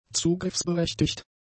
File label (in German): "zugriffsberechtigt", German; adjectives